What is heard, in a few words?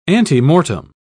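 "antemortem", British English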